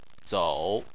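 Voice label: "zou" third tone, chinese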